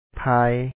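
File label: "ໄຜ ພາຍ", Lao; pha4; pha4ay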